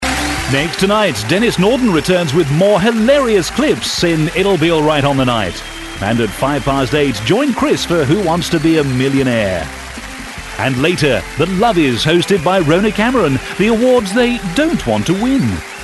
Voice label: continuity